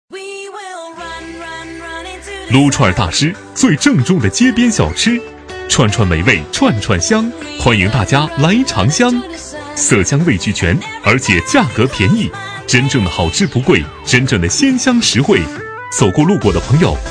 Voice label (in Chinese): 男 促销, 促销男配; 撸串大师